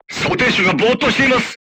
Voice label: voice message charger contused, crew tanks japanese